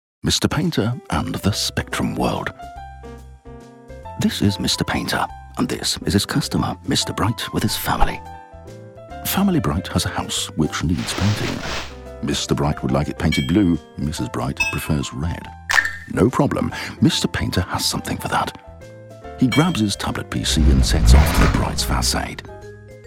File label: praesentation eng, sprecher